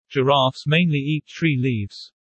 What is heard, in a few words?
fast; Animal friends